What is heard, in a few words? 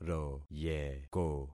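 "royeko", concatenated syllables; Affixing experiment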